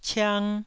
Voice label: "ciang1", taiwanese

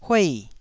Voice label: "huei4", taiwanese

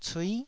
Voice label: "cui9", Taiwanese syllable

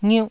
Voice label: "ngiuh4", taiwanese syllables

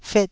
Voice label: "fet4", taiwanese syllable

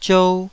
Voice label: "ziou2", Taiwanese

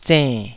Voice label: "zenn3", taiwanese syllables

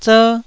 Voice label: "zer1", Taiwanese

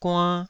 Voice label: "guann2", taiwanese

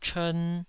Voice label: "chern2", taiwanese

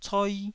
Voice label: "coi1", Taiwanese

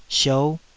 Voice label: "siou5", Taiwanese syllable

